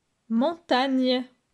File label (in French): "montagne", francais; phonetique; la graphie gn